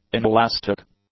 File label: "inelastic", English; adjectives